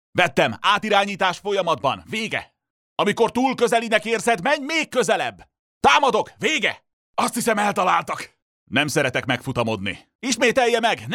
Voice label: hungarian video games